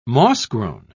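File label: "moss-grown", British English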